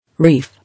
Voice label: "Reef", English